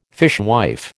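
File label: "fishwife", American English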